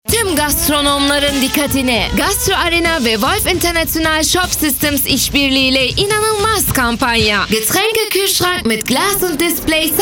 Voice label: Spot; Gastro Arena